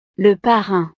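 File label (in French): "le parrain", French; el padrino; familia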